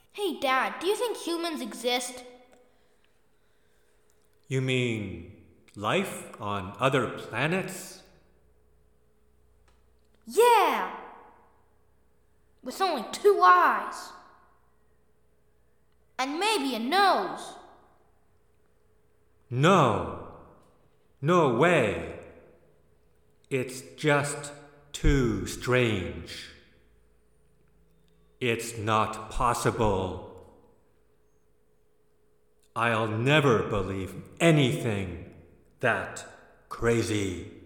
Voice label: aliens dialog